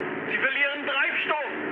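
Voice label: German tank damaged mood high, speech; War Thunder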